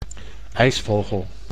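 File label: "ijsvogel", Dutch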